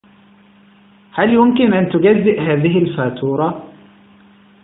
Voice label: can you split this bill arabic